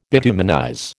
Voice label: "bituminize", American

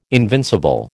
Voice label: "invincible", American

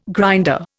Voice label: "grinder", Indian

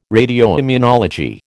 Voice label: "radioimmunology", American

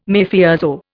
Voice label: "Mafioso", Indian

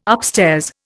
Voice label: "upstairs", Indian

